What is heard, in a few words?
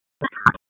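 Police Northwest District Tac, radio; Raleigh; Tac 1; wake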